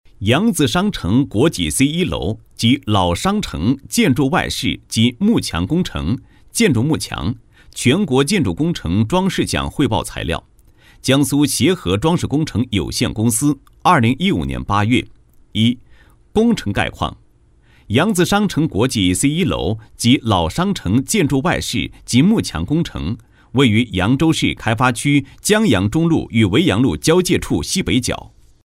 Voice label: 男 工程汇报; 全国建筑工程装饰奖汇报材料

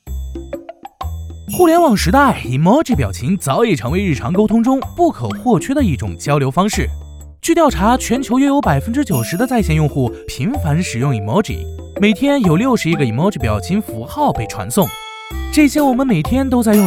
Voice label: 男 MG飞碟说; emoji的由来

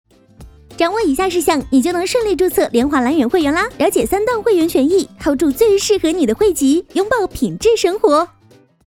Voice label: 女 MG; 飞碟说MG-联华会员

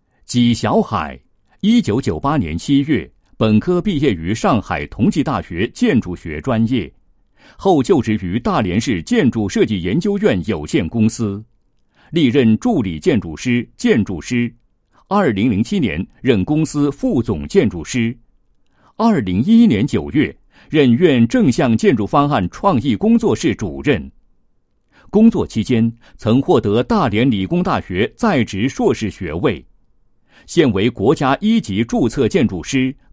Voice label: 男 申报材料; 中国建筑学会青年建筑师奖申报

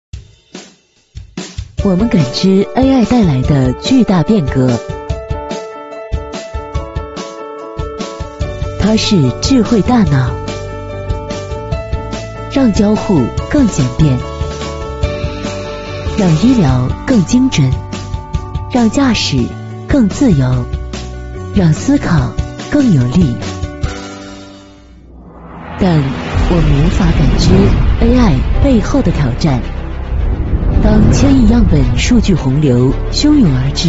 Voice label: 女 广告; AI浪潮

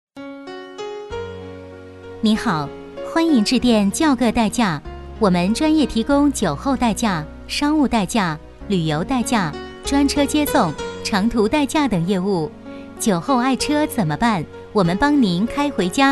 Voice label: 女 彩铃 叫个代驾

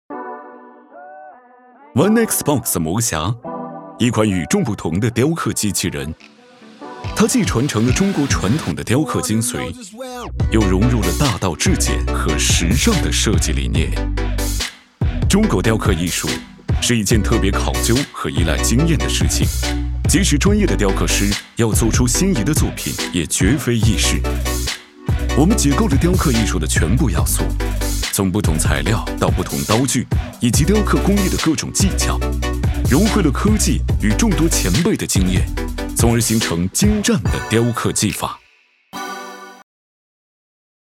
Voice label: 男 时尚颁奖风格; 阿里巴巴

